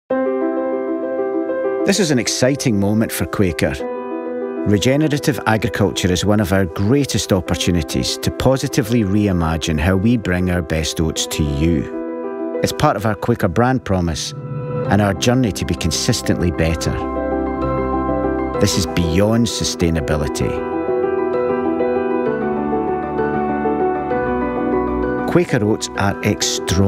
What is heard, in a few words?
male english scottish farming campaign; quaker with leaf